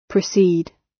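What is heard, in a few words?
"precede", British English; Time, history; Thời gian, lịch sử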